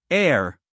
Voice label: "air", us male